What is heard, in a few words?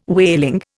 "whaling", Indian